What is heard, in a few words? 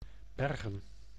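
"bergen", Dutch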